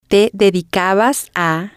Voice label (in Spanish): "te Dedicabas A", spanish